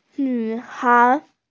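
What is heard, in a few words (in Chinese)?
"nv3hai2", Mandarin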